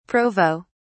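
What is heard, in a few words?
"provo", British English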